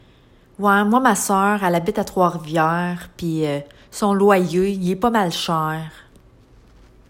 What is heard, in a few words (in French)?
Accent de la Mauricie